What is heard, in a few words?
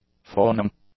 "fawning", english; adjectives